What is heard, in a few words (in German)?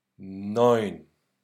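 "Neun", German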